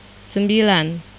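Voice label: "sembilan" Indonesian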